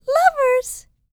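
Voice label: licks, female spoken; ILIO; Vocal Planet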